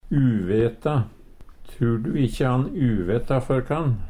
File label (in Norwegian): uveta, Numedalsmål; Trur du ikkje han uveta før kann.; Verb